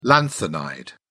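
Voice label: "lanthanide", British English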